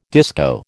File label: "disco", American